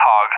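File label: "Hawg", English, USA; CallSign; DCS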